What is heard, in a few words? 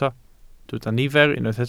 "nifer", Welsh